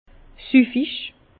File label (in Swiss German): "suffig", Bas Rhin; vin